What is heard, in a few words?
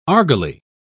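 "argali", English, British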